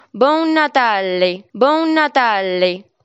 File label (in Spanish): feliz italiano